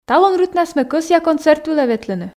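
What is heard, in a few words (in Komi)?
"талун рытнас ме кӧсъя концерт вылӧ ветлыны", Komi, female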